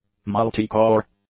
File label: "multicore", English; adjectives